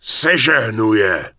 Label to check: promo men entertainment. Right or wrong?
Wrong